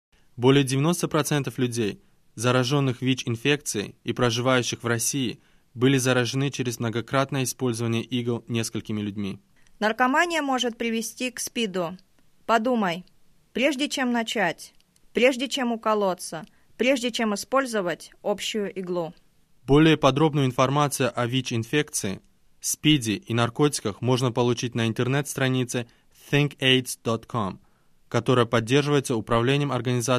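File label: spot russian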